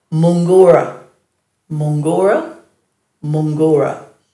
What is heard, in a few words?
"munggura", Dhurga